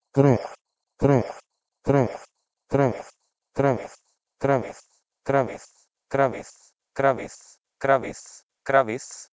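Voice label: Proto-Indo-European kreuh2 to Sanskrit kravis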